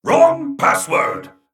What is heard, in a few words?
Male Mech Attack